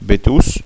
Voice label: "betos", gasconha

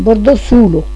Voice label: "bordasola", gasconha

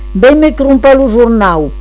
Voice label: "crompar jornau", gasconha

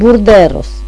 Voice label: "borderas", gasconha

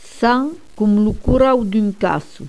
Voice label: "corau casso", Gascon

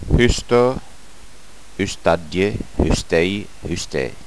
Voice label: "husta", Gascon

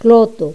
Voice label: "clota", gasconha

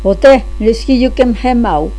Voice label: "esquia", Gascon

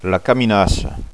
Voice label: "caminassa", Gascon

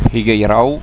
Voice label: "higueirou", gasconha

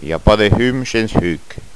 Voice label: "hum huc", gasconha

